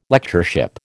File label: "Lectureship", American